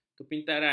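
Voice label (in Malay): "kepintaran", Malay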